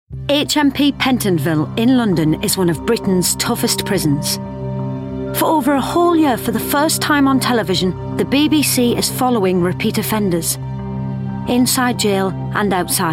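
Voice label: English Geordie female narration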